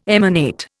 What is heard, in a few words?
"emanate", Indian